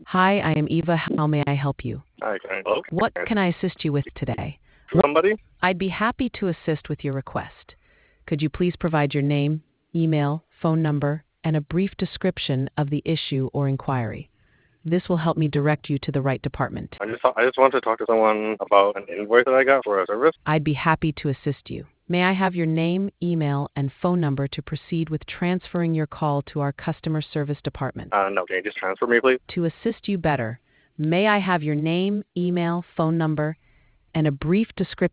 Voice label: conversation